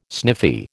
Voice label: "sniffy", American

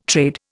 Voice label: "trade", Indian

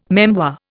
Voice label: "memoir", Indian

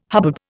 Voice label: "hubbub", Indian

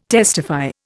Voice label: "testify", Indian

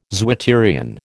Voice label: "zwitterion", American

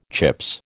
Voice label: "Chips", American

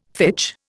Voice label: "fitch", Indian

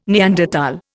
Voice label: "Neanderthal", Indian

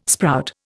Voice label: "sprout", Indian

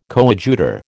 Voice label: "coadjutor", American

